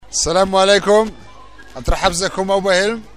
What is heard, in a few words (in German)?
marrokanisch